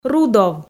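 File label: "рудов", Komi, female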